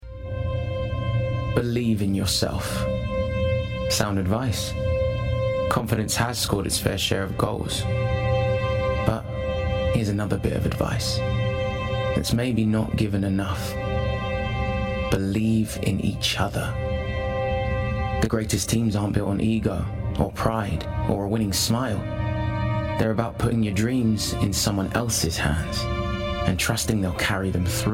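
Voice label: Commercial, Male, Assured, Blokey, Bright, Conversational, Friendly, Warm; Nike; United Voices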